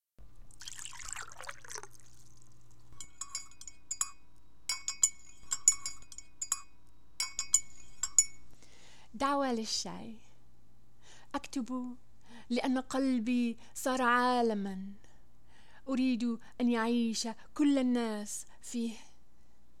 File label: Arabic; Ibtisam Barakat; Tea Invitation